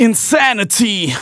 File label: phrase male rap; Vengeance Samples